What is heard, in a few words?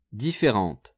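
"differente", French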